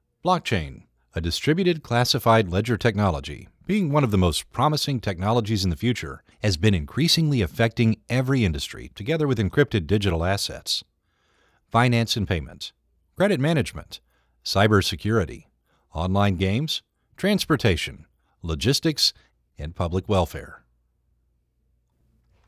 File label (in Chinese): 英文; 永旗链英文翻译